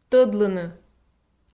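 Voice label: "тӧдлыны", Komi, female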